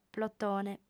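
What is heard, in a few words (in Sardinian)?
"plotone", female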